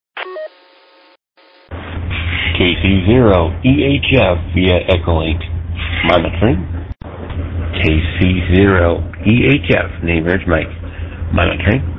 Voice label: qso rec simplex logic